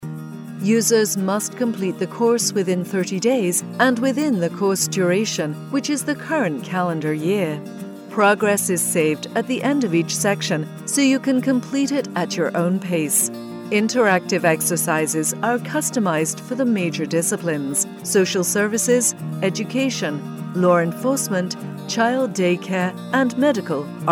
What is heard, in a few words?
Computer Training Course, british voice